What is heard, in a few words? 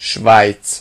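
"switz", Hungarian; gcompris; geography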